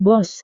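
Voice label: "bos", Indonesian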